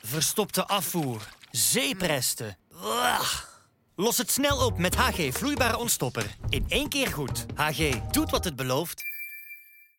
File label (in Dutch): Vlaams; HG Ontstopper